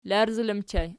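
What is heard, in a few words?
"larzala" ergative plural, Archi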